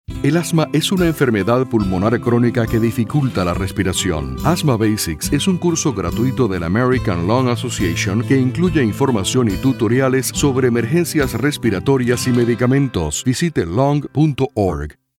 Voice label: Spanish